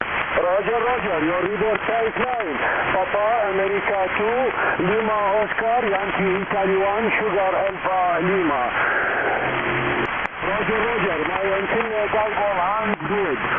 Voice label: Iraq on 14mhz SSB

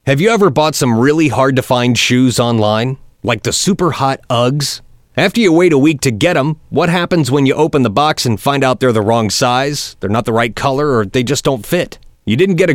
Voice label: effective successful shoe store commercial; st louis stl radio

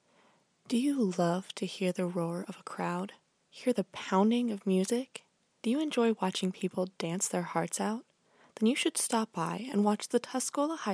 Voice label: commercial

